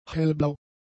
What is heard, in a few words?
"hellblau", German; adjectives